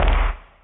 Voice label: cyborg Ouch!, UNUSUAL KITS and FX